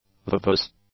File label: "vivace", English; adjectives